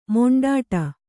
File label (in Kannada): "ಮಿಂಡಾಡು", Kannada